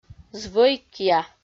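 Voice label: "звӧйкъя", Komi, female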